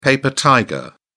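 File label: "paper tiger", British English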